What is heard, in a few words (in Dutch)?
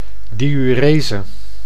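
"diurese", Dutch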